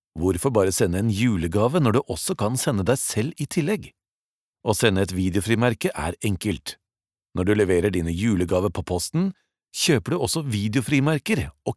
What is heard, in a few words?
norwegian narration